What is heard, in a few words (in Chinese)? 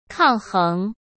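"抗衡", Mandarin Chinese; chinese-flashcards; hsk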